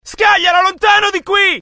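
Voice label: fear grenade, IT; mohaa; dialogue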